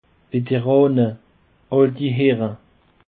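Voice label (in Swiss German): "veteran", Alsatian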